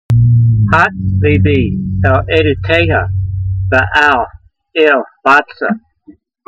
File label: voice, hebrew language; Psalm 119:36; hat-leebee el-aydoteyha, ve'al el-batsah